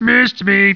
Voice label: annoyed; war2; basic orc voices